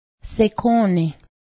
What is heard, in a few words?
cook, Cayuga; sekǫ́:nih